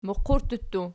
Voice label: "muqurttu", Archi